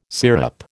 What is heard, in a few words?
"sirup", American